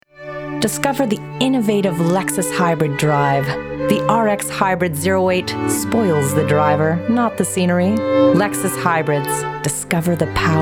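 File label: commercial, Voice Actor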